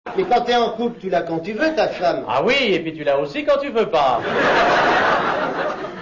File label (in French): Femme; Tu l'as quand tu veux ta femme; spectacle de Chevallier - Laspalès